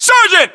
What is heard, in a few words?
rank sergeant, US